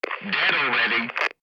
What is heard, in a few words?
American male Hit Dead